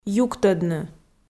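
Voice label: "юктӧдны", Komi, female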